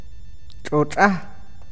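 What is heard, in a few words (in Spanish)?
hígado, awakateko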